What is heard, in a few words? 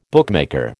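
"bookmaker", American English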